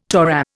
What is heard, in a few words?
"Torah", Indian